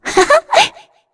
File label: Happy; Shea; kings raid; en